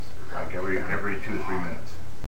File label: Male voice; You lie; Athens IL Bitterroot